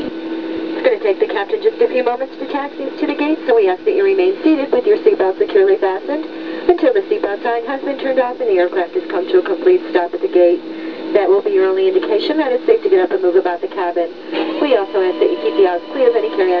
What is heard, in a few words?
flight attendant briefing